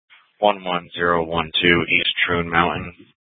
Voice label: Dispatch; Scottsdale